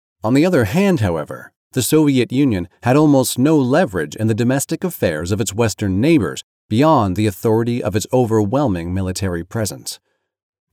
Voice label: Voice Actor Nonfiction History